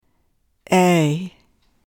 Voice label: "a" long